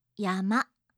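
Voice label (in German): "yama", japanische Mädchennamen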